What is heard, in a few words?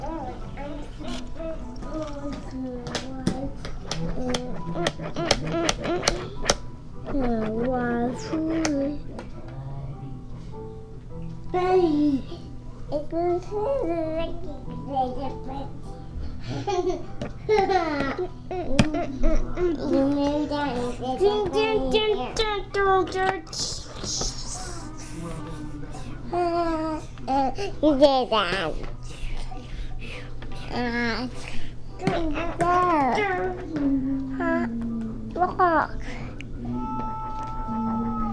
children talking richland